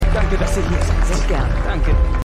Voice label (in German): Frau Sehr Gern; Law & Order